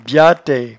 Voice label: "biate", patois jura